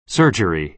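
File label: "surgery", American English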